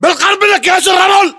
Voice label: landmark near sandbags, localized english battlechatter